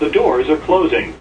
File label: subway the doors are closing, Transit Terminal Announcements